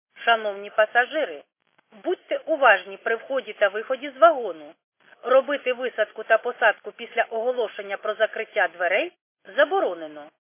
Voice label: khs st female, subway station